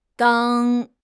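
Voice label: "dang1", Mandarin Chinese; HSK; HSK4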